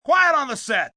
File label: Quiet On Set, Film, movie, direction, assistant director, male 'Quiet on the set', (human voice speaking); Talking